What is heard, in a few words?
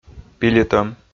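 "пилитӧм", Komi, male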